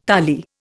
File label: "tali", Indian